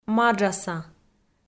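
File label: "маджаса", Komi, female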